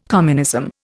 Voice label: "communism", Indian